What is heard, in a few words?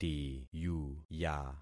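"tiyuya", concatenated syllables; Affixing experiment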